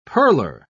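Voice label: "pearler", British English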